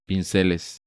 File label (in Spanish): "Pinceles", Spanish